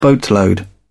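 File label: "boatload", British English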